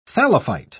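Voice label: "thallophyte", English, British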